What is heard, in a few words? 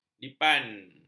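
"dipan", Malay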